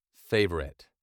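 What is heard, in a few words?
"favorite", British English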